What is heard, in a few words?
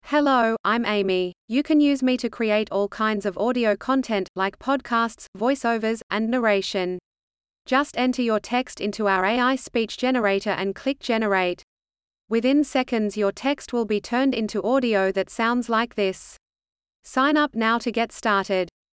Australian Accent Sample